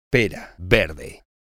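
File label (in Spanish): "pera verde", Spanish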